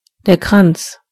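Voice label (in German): "wreath", German; gcompris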